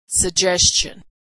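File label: "suggestion", American English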